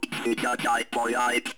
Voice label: comm voice; Ambient Audio; ogxbox